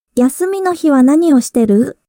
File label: anime, japanese; small talk; natural Japanese